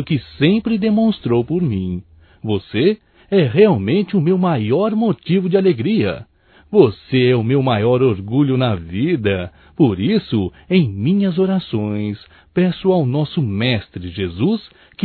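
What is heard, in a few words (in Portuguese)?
Agradecimento para filha male, Só Voz; Telemensagens; AGRADECIMENTOS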